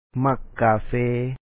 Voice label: "ma#k kaafe2e", Lao; ມັກກາແຟ; like coffee